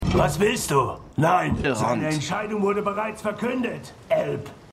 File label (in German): Zwergenwachmann, Stimmproben; DER HERR DER RINGE: DIE RINGE DER MACHT; The Lord of the Rings